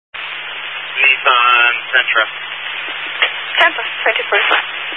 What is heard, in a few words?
Highway Patrol, Phoenix Metro West Area, scanner